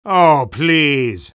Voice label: spy negative vocalization; tf2